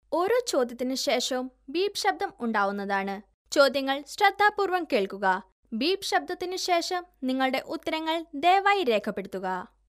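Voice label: Malayalam female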